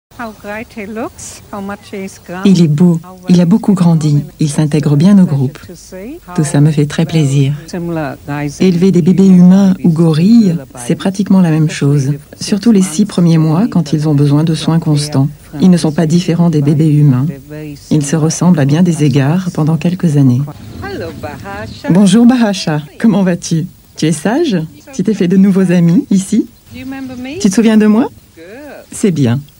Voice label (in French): ton doux souriant Voice Over; ligne de gorilles